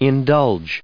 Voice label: "indulge", English

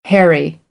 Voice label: "harry", American; AmericanBritish

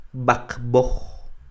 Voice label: "b'aqb'oj", Quiché; más o menos delgado; somewhat bony; INALI; UTexas; CHQETA

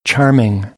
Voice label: "charming", American; English

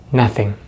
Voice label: "nothing", American English; Consonants in American English; easypronunciation

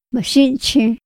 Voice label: "ma xinxi", Otomí; INPI; INALI

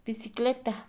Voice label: "bicicleta", Mixe, Mujer; Moderno; INALI

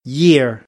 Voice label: "year", American, English; ɹ - əɹ

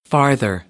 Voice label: "farther", American English

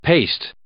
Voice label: "paste", English

